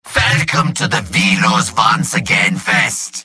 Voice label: jeers; medic; video games; source engine; tf; mvm

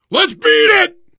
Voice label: emphatic run, survivor voice

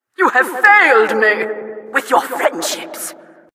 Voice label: announcer failure; source engine; tf

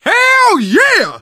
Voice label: hurrah, survivor voice

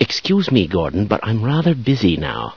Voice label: scientist busy; source engine; hl1; video games

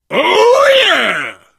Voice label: taunt, biker; source engine; left 4 dead 2; survivor

